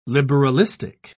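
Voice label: "liberalistic", British English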